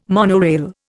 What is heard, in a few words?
"monorail", Indian